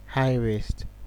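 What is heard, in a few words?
"highwaist", Nigerian Pidgin, male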